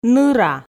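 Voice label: "ныра", Komi, female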